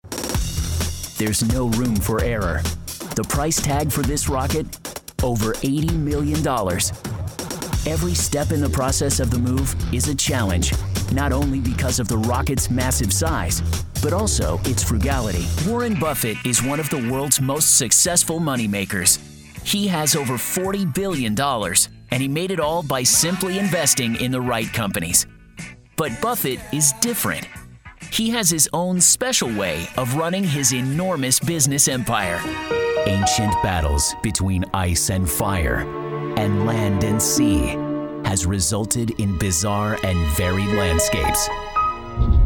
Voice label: Narration